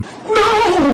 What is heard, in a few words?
dramatic no